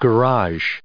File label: "garage", us